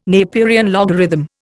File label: "Napierian logarithm", Indian